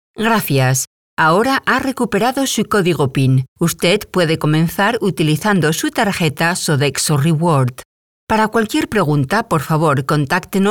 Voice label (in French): Voix espagnole